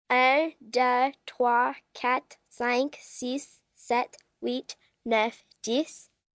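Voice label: Numbers French 1 to 10, Foreign, 1 girl, 10 yrs, counting French - 1-10; human voice, kid, child, speak, talk; Talking Sound Effects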